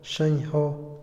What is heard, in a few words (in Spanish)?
bueno, Otomí, hombre; INALI